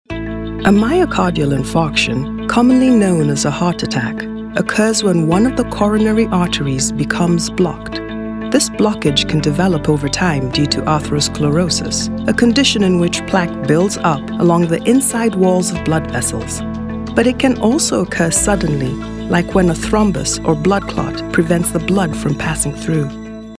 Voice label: english african e-learning